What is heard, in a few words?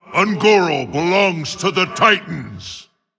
Male Tolvir Play